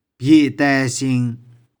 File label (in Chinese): "bie2tae3shing1", 玉環